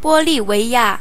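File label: bolivia, Hebrew; gcompris-data; geography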